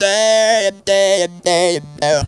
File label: "DIIIP", funky vocals; USB Soundscan vol.18; AKAI; TB MELODY